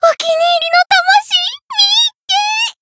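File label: encounter, jp; ilulu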